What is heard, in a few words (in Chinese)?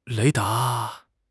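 "雷达", Chinese; HSK6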